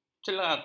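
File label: "celak", Malay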